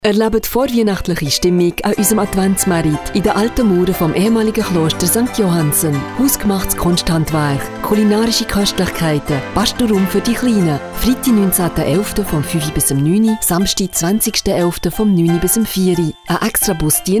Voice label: Adventsmarkt CH D

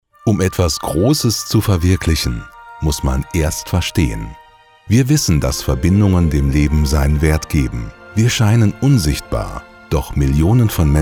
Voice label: Imagefilm

